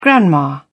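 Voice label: "grandma", English, British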